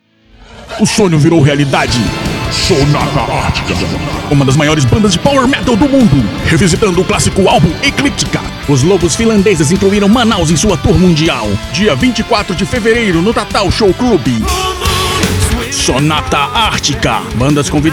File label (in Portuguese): comercial